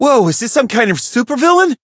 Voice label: fear